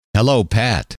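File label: hello, male; trainer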